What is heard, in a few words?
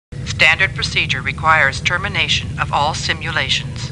Computer Voice; Star Trek; StarTrekLCAR